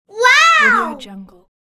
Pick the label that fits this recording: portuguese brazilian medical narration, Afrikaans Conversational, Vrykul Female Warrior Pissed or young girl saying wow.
young girl saying wow